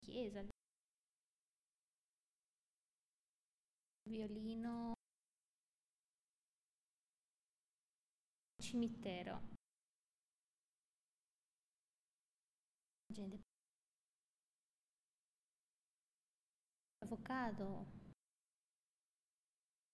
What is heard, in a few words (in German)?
Zusammengefugte Worter Langsam Italienisch